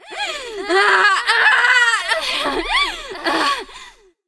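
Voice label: cinematic voice, Spanish; lineage2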